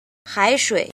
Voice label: "jin", Chinese